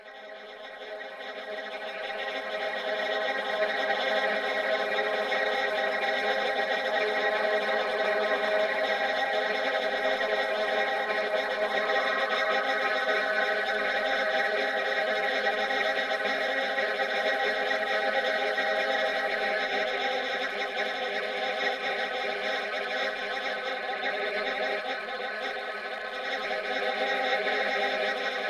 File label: Chatter